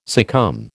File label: "succumb", American